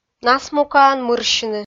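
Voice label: "насмукаӧн мырсьыны", Komi, female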